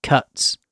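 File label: "cuts", British English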